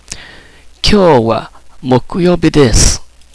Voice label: japanese